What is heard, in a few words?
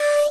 "hai" D5; 音MAD; 偶像大师; 春香